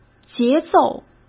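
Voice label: "节奏", Mandarin Chinese; hsk; chinese flashcards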